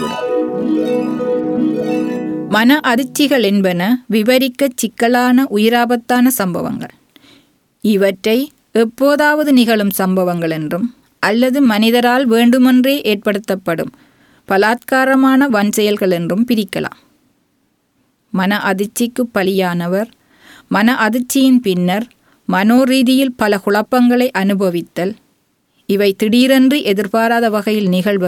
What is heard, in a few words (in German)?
Tamil